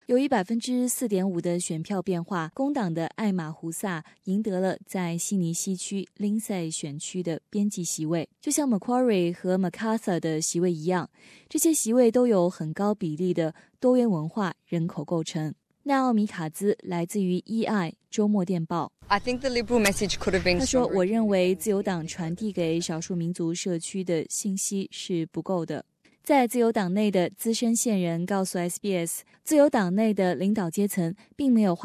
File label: mandarin; ETHNIC VOTE; SBS Chinese